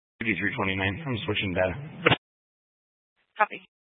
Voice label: Sheriff Dispatch, scanner